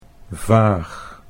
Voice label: "vaag", Dutch

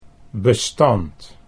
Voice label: "bestand", Dutch

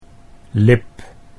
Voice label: "lip", Dutch

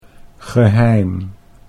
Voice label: "geheim", Dutch

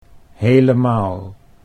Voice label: "helemaal", Dutch

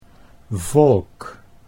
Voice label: "volk", Dutch; 'nation, people'

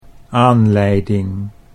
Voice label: "aanleiding", Dutch

